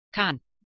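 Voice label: "keh2n", Proto-Indo-European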